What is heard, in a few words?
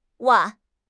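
"wan4", Mandarin Chinese; HSK3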